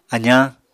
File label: "azañ", Apatani